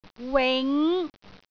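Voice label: "wing5", Cantonese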